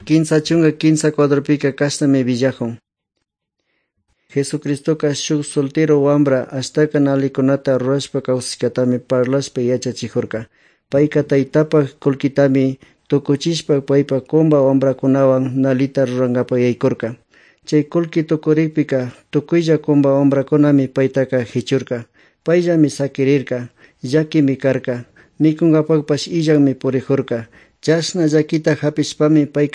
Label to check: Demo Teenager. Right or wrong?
Wrong